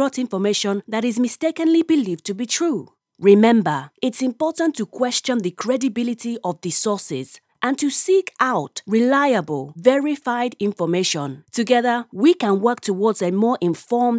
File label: Fake News Nigerian Accent